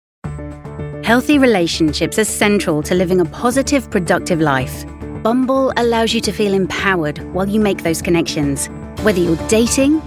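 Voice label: corporate, Experienced British Voiceover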